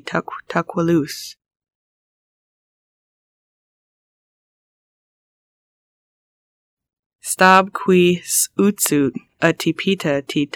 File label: What did peter say to the owl, Lushootseed; stab kʷi sʔucut ʔə ti pitə ti təkʷtəkʷəlus